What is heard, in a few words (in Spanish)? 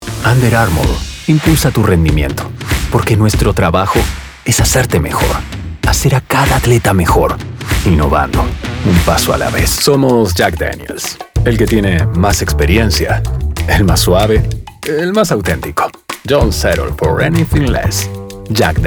institucionales esp neutro